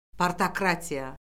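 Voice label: "partokratiya", Azerbaijani; Orfoqrafiya Lüğəti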